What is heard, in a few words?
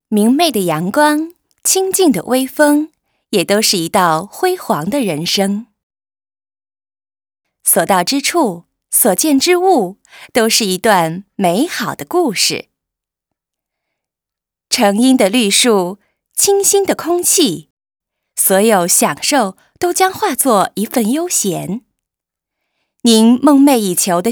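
chinese mandarin female narration; voice demos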